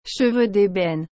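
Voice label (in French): "cheveux débène", French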